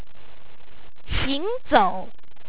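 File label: "xi2ngzo3u", Chinese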